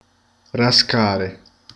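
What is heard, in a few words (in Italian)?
"graffiare", Sicilian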